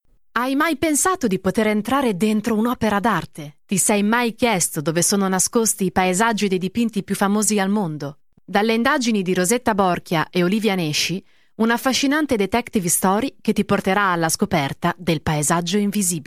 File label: Italian Native